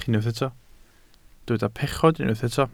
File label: "pechod", Welsh